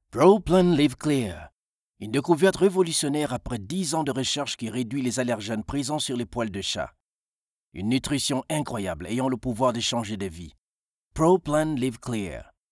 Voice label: french promos